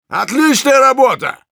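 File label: Engineer cheers, Russian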